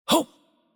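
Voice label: Chinese attack light voice; bloom minigame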